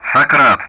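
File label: "Sokrat", Russian; Callsign; DCS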